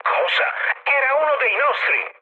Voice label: player killed ally mood high, speech it

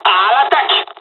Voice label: dialog, dialogs french; midway; War Thunder

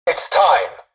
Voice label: tutorial dialogue, english; War Thunder

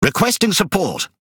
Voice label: Pirate Speech Quick Commands Need Support; World Of Warships

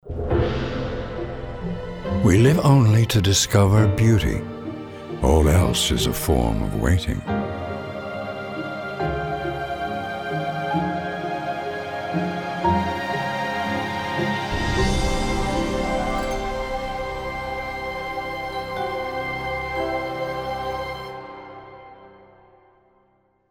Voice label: Speech, English, Wonder; Civ5; Eiffel Tower

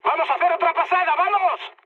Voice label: bomb failure, mood high, speech; War Thunder

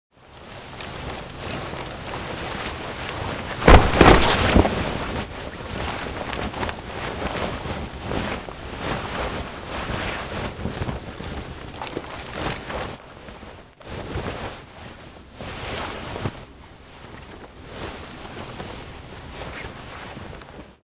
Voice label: humvee wounded soldier; trainer; Call of Duty Modern Warfare

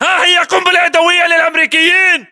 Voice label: inform attack grenade, battle chatter, english

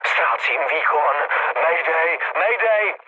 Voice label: damaged engine, speech cze, mood low; War Thunder

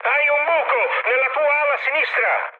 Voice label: left wing mood high, speech it; War Thunder

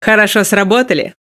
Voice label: Speech Quick Commands Good Game

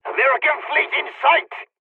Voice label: dialogs polish; War Thunder

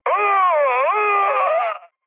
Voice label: damaged pilot, mood high, speech tur; War Thunder

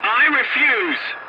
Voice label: voice message no, english; War Thunder

